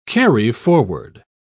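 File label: "carry-forward", English, British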